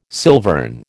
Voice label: "silvern", American

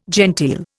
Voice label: "genteel", Indian

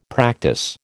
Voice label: "practise", American

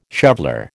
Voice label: "shoveller", American

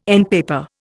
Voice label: "endpaper", Indian

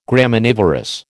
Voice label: "Graminivorous", American

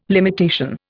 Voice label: "limitation", Indian

